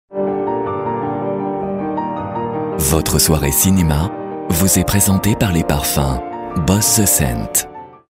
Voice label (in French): sponsoring gentle sultry, french voice over; Boss